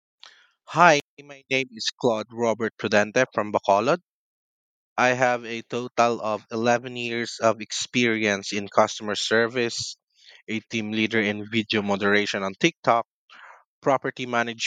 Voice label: Voice Recording, Self Introduction; Brivity Virtual Assistants; Real Estate Property Manager for Leo Property Solutions